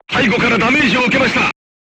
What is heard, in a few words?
voice message shot back, crew tanks japanese